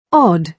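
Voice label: "odd", British English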